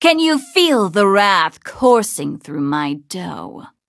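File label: levelup, English; Cookie Run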